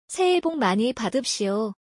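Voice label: Korean, 새해복 많이 받읍시오 (saehae’bok mani badeub’shio) - frm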